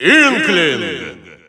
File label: Russian Announcer; Inkling; SSBU